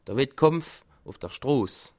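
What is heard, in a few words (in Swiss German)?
"stross", Bas Rhin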